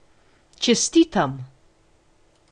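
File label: congratulation, Scottish Gaelic; gcompris-data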